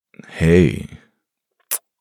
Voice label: man heyy kiss; voice-ringtones

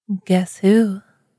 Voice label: guess who, girl voice; voice-ringtones

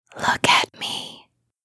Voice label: asmr girl look at me; ringtone; asmr ringtones

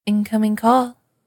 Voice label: incoming call, girl voice ringtone; voice ringtones

